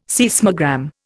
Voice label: "seismogram", Indian

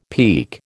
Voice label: "peek", American

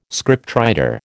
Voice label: "scriptwriter", American

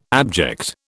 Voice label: "abject", British

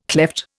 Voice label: "klepht", Indian

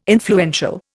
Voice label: "influential", Indian

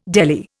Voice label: "deli", Indian

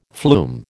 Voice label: "flume", American

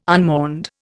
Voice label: "unmourned", Indian

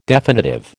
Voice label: "definitive", American

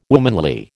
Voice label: "womanly", American

